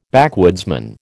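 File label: "backwoodsman", American English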